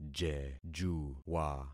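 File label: "jejuwa", concatenated syllables; Affixing experiment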